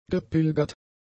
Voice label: "gepilgert", German; adjectives